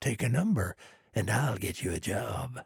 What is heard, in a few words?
trader male neutral quest offer